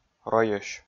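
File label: "ройӧсь", Komi, male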